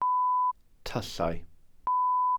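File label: "tyllau", Welsh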